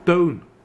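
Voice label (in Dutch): "Toun", dialect; Toen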